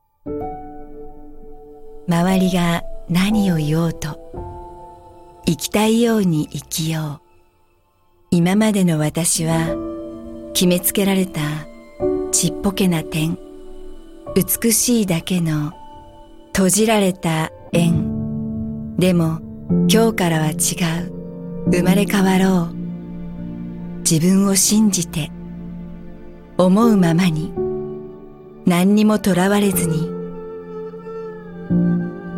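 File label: F, Japanese, female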